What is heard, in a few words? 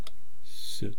"su", East Cree syllabics